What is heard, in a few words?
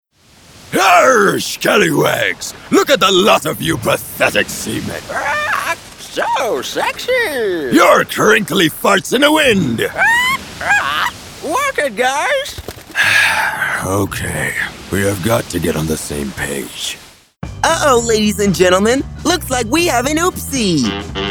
Animation